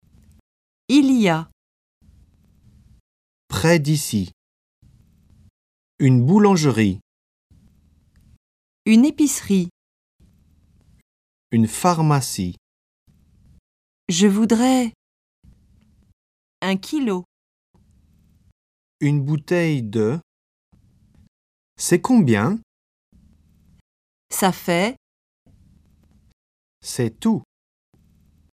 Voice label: french shopping; french language; quickfix; france